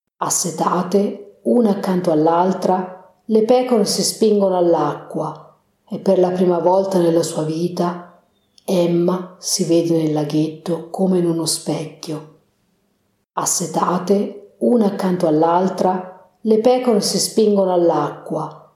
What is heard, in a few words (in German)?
italienisch